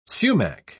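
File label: "sumach", British English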